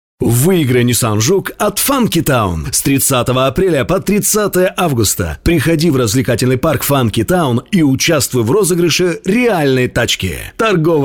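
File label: Russian Russia male sales; russian voice over talent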